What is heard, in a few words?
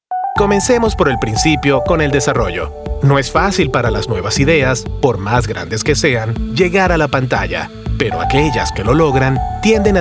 spanish latin american corporate videos